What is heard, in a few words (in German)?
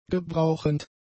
"gebrauchend", German; adjectives